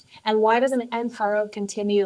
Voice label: Voice Acting, es